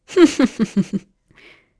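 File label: Vox Happy, English; Glenwys; kingsraid; voices heroes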